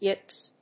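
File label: "yips", English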